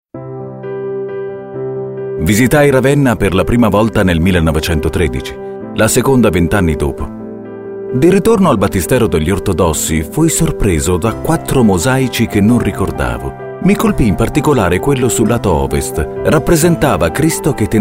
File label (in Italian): documentari